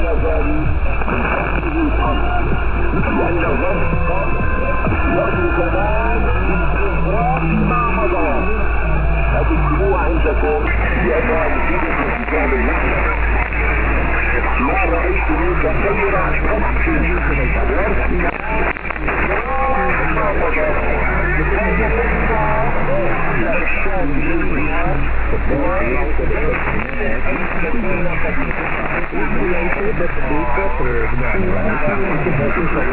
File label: arabic